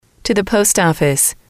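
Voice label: "to the post office", English, female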